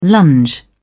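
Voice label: "lunge", British English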